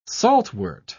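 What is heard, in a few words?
"saltwort", British English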